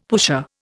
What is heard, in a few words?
"pusher", Indian; Dictionary